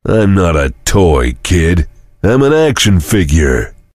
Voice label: chitchat; svencoop; voice commands; Duke